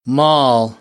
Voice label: "mall", American; ɑː - ɔː